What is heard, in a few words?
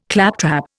"claptrap", Indian